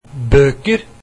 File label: books, norwegian